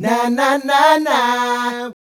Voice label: Male Vocal; Vocals; Mac Money